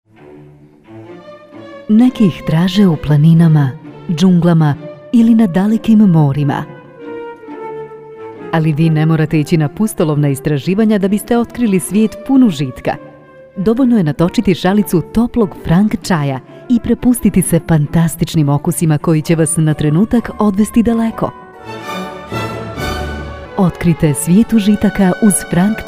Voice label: TVC, bosnian

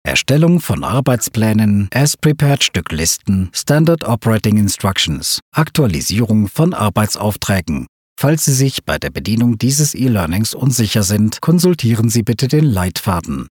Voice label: E-Learning, german

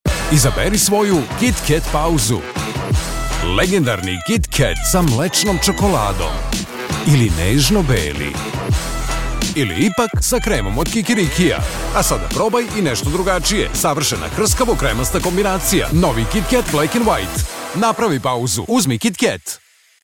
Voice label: TVC, serbian

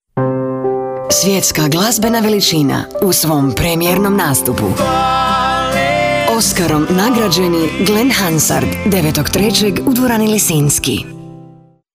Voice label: radio commercial, croatian

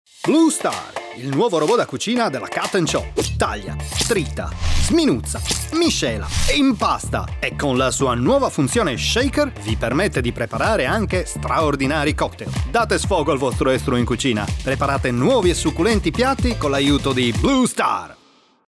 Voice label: Commercial, italian

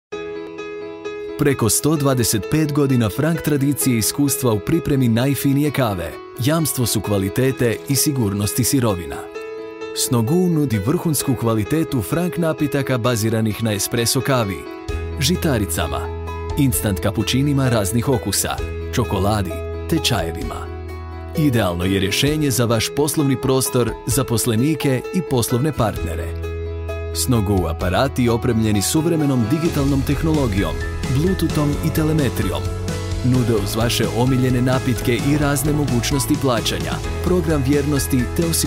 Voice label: corporative, croatian

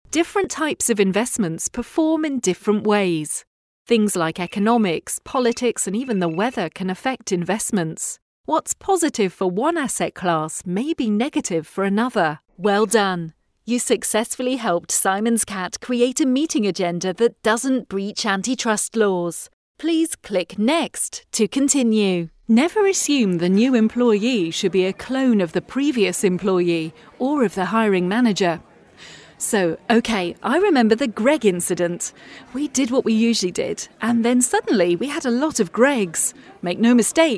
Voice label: Elearning, english

